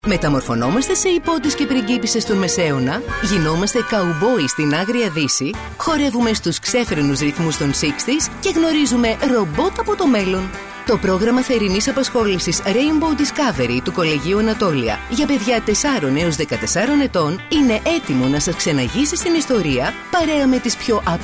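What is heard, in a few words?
Greek, female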